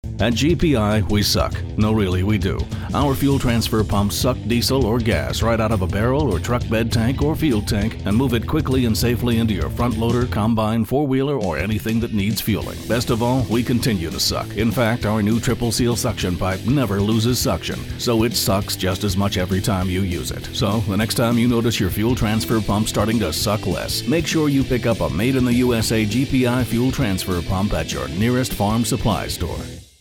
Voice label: Radio Spot; XM